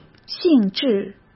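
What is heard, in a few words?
"性质", Mandarin Chinese; hsk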